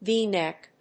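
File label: "V-neck", English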